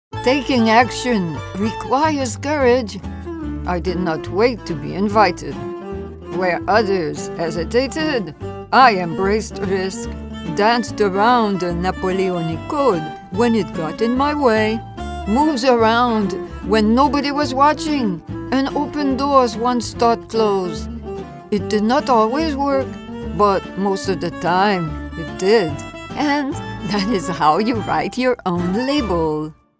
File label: French Accent